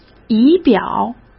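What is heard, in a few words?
"仪表", Mandarin Chinese; chinese-flashcards; hsk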